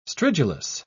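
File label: "stridulous", English, British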